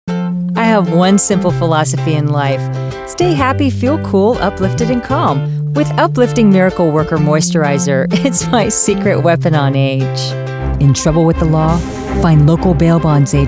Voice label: english us and canada female commercial